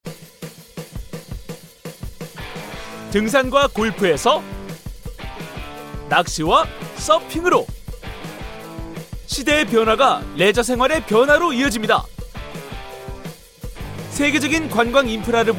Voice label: 홍보 광고; KBS

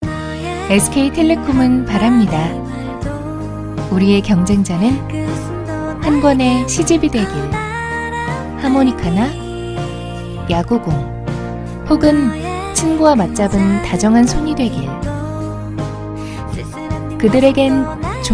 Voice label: 광고 커버 따뜻한

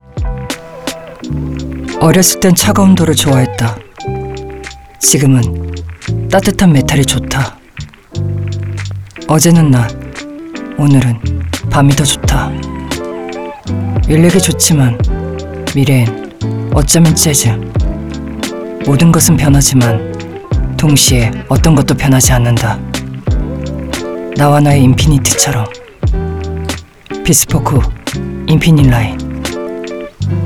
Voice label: 드라이한

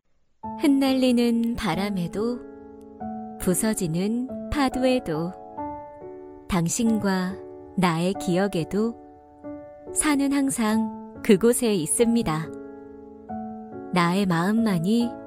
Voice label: 편안한 다정한 소주; 한라산 소주

